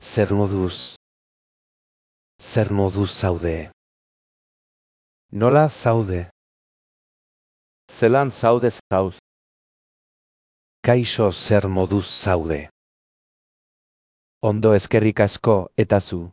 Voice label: basque how are you; greetings and salutations in basque